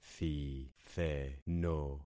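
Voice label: "thitheno", concatenated syllables; Affixing experiment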